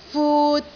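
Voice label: "fut3", Cantonese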